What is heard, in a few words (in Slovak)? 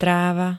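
"tráva", Slovak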